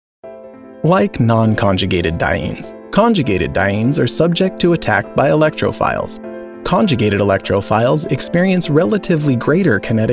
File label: elearning